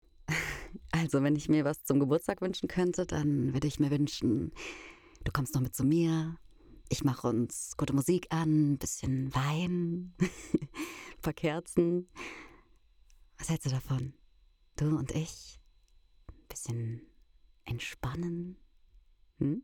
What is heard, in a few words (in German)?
Rolle flirten